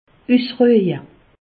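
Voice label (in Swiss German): "usruje", Alsatian; medecine; reposer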